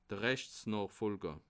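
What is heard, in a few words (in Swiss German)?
"rechtsnoochfoljer", Bas Rhin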